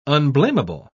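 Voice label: "unblamable", British English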